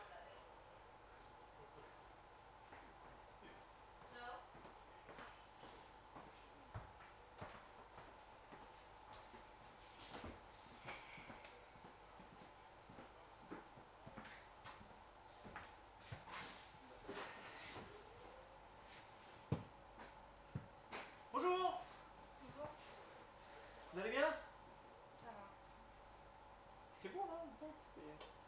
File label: Harass French